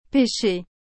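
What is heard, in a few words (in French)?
"péché", French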